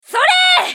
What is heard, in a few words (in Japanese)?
ソルジャー女 攻撃; リボルドウェソルジャー